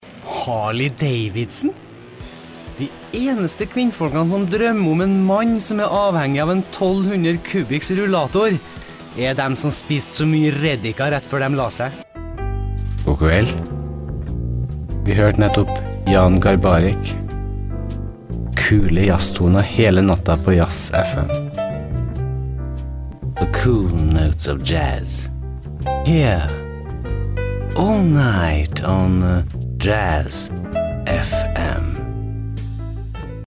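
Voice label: Norwegian Commercial; GoLocalise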